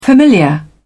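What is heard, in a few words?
"familiar", English, British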